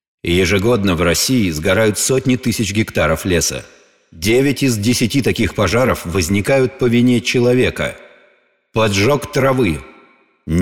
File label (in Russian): Останови огонь пожары без музыки